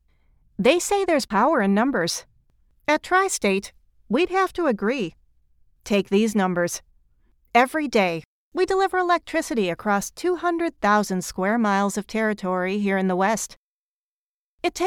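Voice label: Business Corporate Tristate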